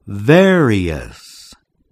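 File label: "various", English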